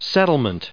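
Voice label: "settle", US English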